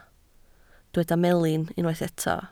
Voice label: "melin", Welsh